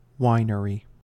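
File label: "winery", American English; pronunciations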